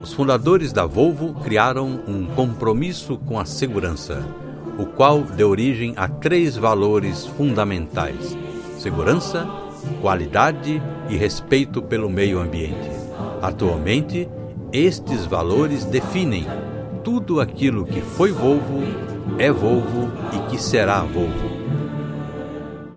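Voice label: Brazilian; Portuguese-Brazilian, Male, Home Studio, 30s-50s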